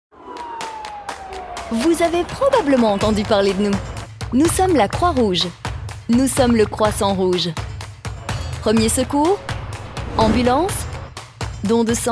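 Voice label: female French corporate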